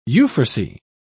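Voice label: "euphrasy", British English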